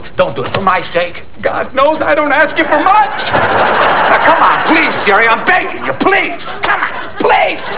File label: begging